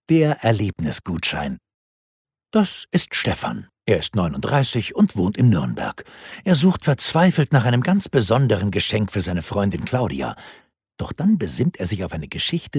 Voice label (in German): DEUTSCH MALE Erklaervideo; Erlebnisgutschein; Jochen Schweitzer